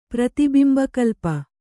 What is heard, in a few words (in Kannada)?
"ಪ್ರತಿಬಿಂಬಕಲ್ಪ", Kannada